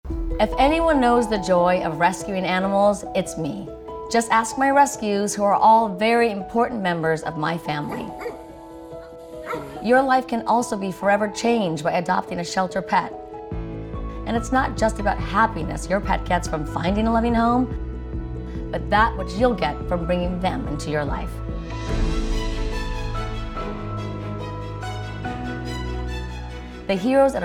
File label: RADIO; Kyle Richards